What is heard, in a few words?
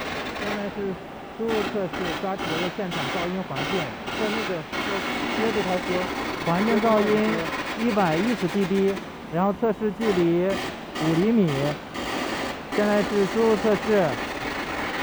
Before noise reduction; traditional noise reduction vs. AI voice extraction